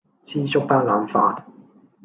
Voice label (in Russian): Голоса, Гонконгский